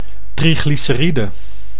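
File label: "triglyceride", Dutch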